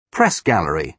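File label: "press gallery", British English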